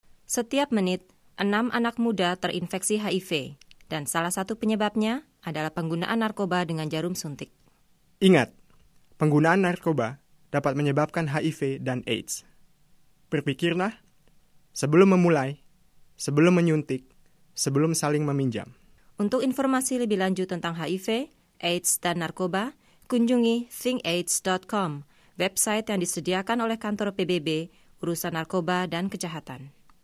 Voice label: spot indonesian